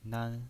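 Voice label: "nan", pinyin voice, Mandarin Chinese, first tone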